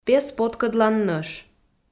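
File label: "пес поткӧдлан нӧш", Komi, female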